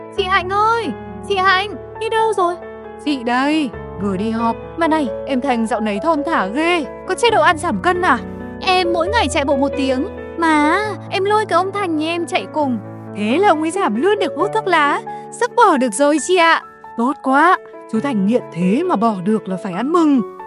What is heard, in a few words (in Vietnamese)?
giọng bắc; VAI TRÒ CỦA NGƯỜI ĐỨNG ĐẦU CƠ QUAN; clip phat thanh ve phong chong tac hai cua thuoc la 2024